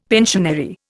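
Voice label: "pensionary", Indian English; Dictionary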